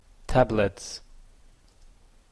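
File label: "tablets", Canadian English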